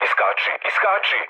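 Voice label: Serbian bailout mood low, speech; War Thunder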